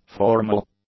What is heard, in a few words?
"formal", English; adjectives